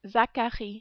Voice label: "Zacharie", French